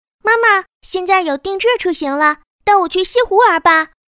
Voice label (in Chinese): 女 游戏角色 小女孩; 可爱